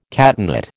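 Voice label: "catenate", American English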